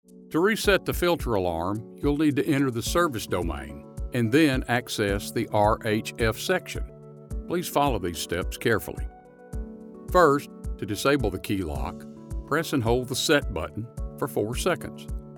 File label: Instructional